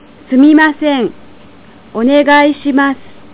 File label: sumimasen onegai, japanese